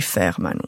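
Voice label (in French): "fermanu", Corsican, Moita Verde (nord); Corse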